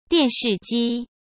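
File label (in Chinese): "电视机", Mandarin Chinese; hsk; chinese-flashcards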